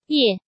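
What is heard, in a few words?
"Yè", Chinese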